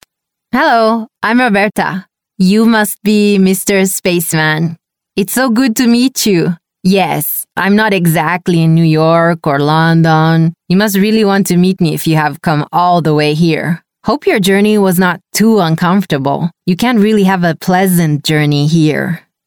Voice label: Brazilian English Accent Character, Brazilian Portuguese Female